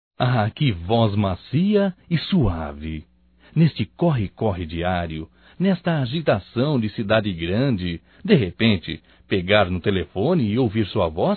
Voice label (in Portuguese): PARA TELEFONISTA(M); Românticas